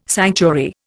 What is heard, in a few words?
"sanctuary", Indian